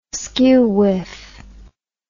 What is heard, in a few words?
"skew whiff", English